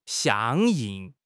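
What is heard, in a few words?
"相应", Mandarin Chinese; HSK6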